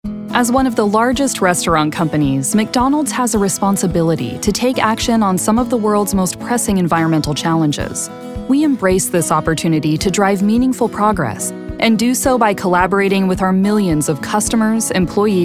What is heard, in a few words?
corporate narration